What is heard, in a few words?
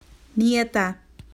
"Granddaughter" "Nieta"